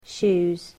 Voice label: "shoes", English